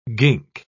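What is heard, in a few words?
"gink", English, British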